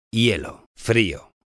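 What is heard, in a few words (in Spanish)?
"hielo frío", Spanish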